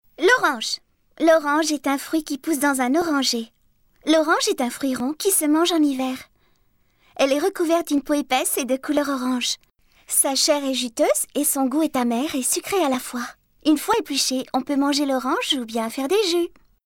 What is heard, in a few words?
female french kids comercial; ideasonora